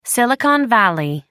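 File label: "silicon valley", British English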